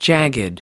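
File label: "jagged", American English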